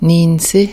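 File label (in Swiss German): "niinzeh", Alsatian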